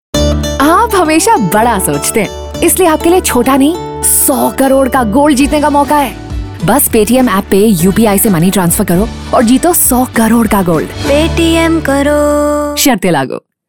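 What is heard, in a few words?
tvc hindi; paytm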